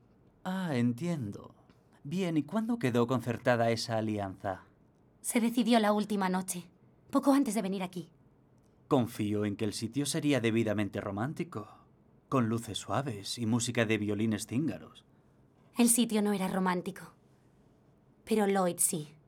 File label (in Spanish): voz, actriz de doblaje